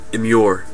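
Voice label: "immure", US English; pronunciations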